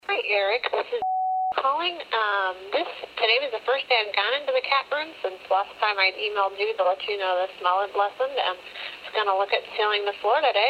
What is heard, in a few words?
Voicemail Message